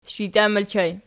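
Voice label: shijtian ergative plural, Archi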